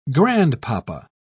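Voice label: "grandpapa", English, British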